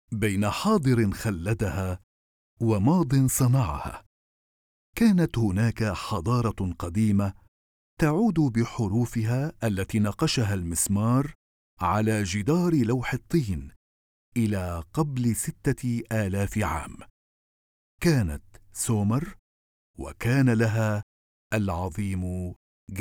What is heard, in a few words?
Documentary Male